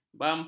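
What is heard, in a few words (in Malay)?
"bamper", Malay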